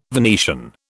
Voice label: "Venetian", British